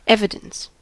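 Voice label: "evidence", US English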